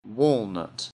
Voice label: "walnut", English; FRUIT

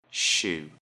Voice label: "shoe", English; Everyday Clothes

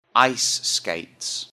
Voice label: "ice skates", English; Outdoor Clothes

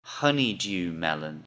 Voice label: "honeydew melon", English; FRUIT

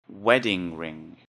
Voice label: "wedding ring", English; Jewelry and Cosmetics

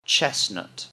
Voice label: "chestnut", English; FRUIT

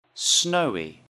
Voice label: "snowy", English; Describing the weather

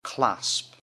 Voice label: "clasp", English; Jewelry and Cosmetics

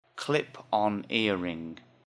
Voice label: "clip on earring", English; Jewelry and Cosmetics

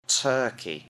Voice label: "turkey", English; MEAT, POUTRY AND SEAFOOD

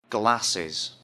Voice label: "glasses", English; Everyday Clothes

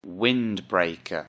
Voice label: "windbreaker", English; Outdoor Clothes

